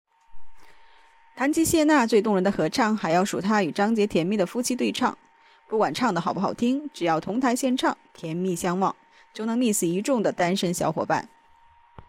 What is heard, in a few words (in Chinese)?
综艺类